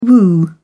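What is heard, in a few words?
"woo", British English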